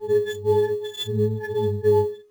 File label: comm voice